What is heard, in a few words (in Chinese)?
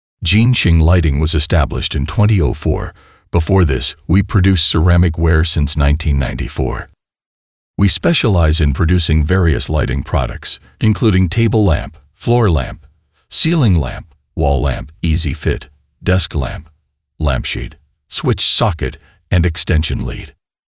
外籍男英 外语 美式英语 宣传片 抒情